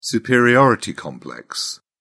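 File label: "superiority complex", English, British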